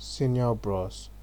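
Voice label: "senior bros", Nigerian Pidgin, male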